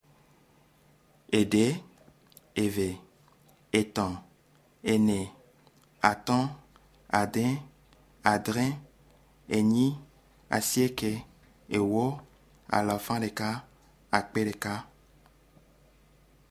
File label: Ewe, Toene